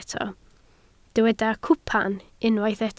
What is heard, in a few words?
"cwpan", Welsh